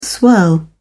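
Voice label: "swirl", British English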